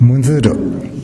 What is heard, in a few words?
"muzuru", minamiyamagata